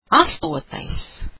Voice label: "eighth", latvian

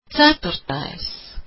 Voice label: "fourth", latvian

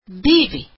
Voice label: "two", latvian

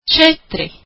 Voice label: "four", latvian

